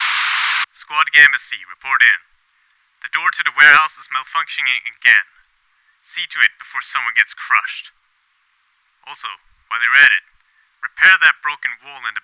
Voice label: radio